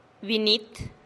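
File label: "biniit", Teotitlán del Valle Zapotec; gente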